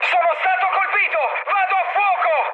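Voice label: Italian on fire mood high, speech; War Thunder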